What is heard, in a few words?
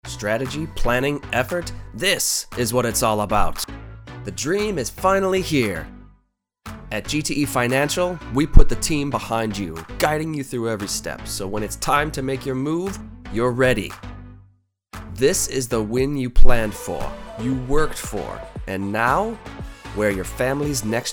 Audition Soccer Promo